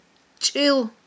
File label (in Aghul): "чIил", aghul